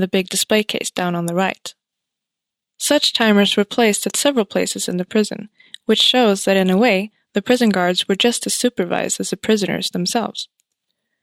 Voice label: cell, audioguider; eng